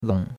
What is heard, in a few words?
"long3", chinois; Cours-de-Chinois